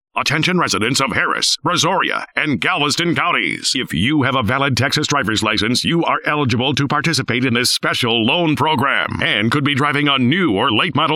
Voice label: Dry Voice; Clear Lake Nissan